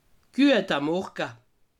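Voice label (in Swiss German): "morga", Haut-Rhin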